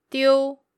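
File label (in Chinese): "diu", Mandarin Chinese, female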